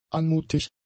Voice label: "anmutig", German; adjectives